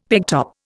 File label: "pegtop", Indian; Dictionary